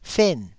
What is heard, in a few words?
"fen4", Taiwanese